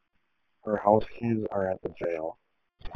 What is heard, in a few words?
Police Dispatch